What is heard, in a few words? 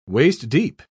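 "waist-deep", British English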